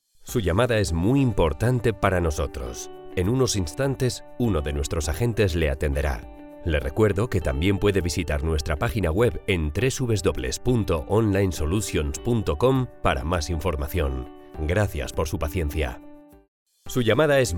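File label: spanish ivr, male; spanish voice actors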